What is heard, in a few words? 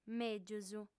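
"mègius", female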